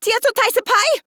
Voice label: Surprised Female; Warframe; Modular Corpus Female; Battle Barks; en